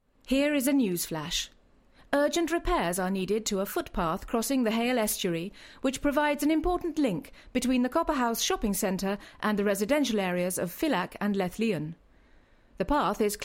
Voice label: newsflash (RP)